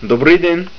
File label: Hello Goodday, Czech